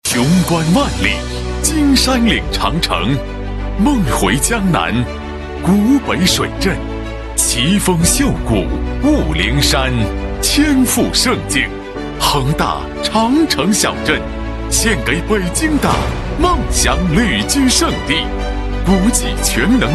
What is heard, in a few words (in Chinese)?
国语男 地产; 恒大